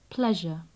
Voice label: "pleasure", English

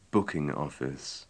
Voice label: booking office, english

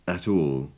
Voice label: "at all", English

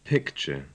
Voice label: "picture", English

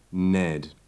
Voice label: "ned", English

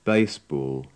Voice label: "baseball", english

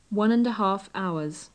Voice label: "one and a half hours", English

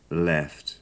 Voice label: "left", English

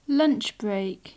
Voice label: "lunch break", English

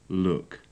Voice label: "look", English

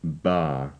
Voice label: "bar", English

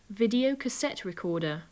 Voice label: video cassette recorder, english

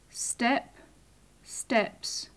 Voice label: step steps, english

